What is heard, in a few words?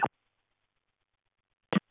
Space to Ground; Expedition 39